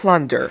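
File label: "plunder", US English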